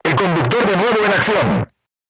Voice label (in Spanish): voice message driver healed, Spanish